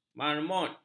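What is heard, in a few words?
"marmut", Malay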